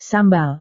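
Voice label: "sambal", Indonesian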